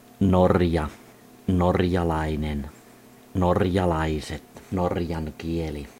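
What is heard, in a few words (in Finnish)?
"Norja", Finnish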